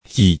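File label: "hi", Hakka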